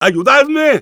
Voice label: help me, es; Engineer

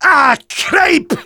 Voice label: negative vocalization

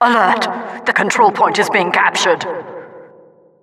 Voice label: Announcer control point warning; Alert! Het controlepost wordt veroverd.; Administrator

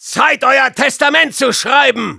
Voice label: taunts, de; Soldier

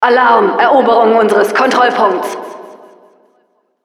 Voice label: Announcer control point warning de

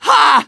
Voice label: Medic positive vocalization

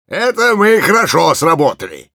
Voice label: cheers, ru; Engineer; "Это мы хорошо сработали."

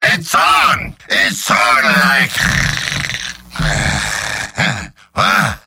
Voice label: gibberish; Demoman; Robot-filtered lines from MvM; Demoman Robot audio responses

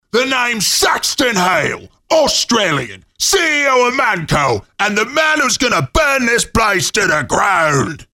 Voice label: battlecry; Saxton Hale

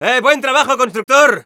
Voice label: Scout thanks for the teleporter, es; ¡Eh, buen trabajo constructor!

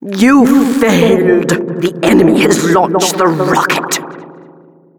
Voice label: Announcer rocket launch

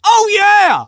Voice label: Scout cheers

